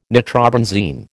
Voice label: "nitrobenzene", American